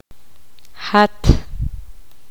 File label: poqomam; t vos usted singular